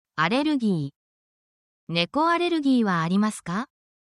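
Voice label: Japanese word, japanese phrases; under the weather essential japanese phrases for medical symptoms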